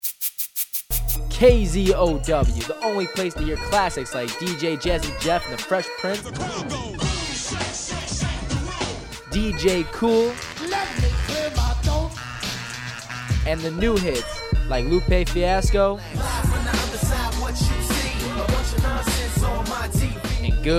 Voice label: Music Liner